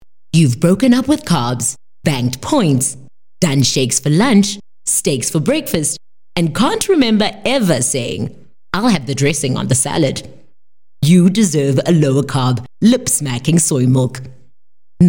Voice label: English bright; OSM Talent